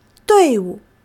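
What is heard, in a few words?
"duìwu", Chinese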